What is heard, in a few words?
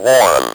"warm", Speak & Spell